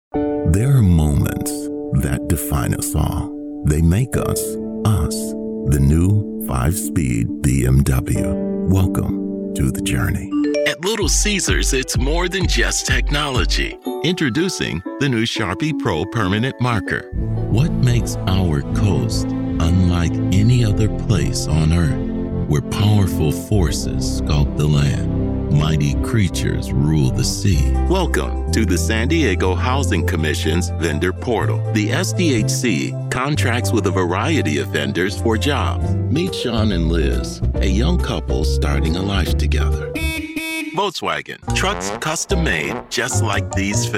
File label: commerical